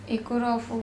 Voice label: "E kuropHu", andamanese; sharp knife